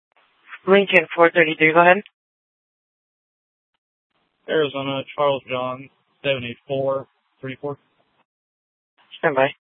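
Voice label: Sheriff, Parks, Sheriff radio scanner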